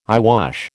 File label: "eyewash", American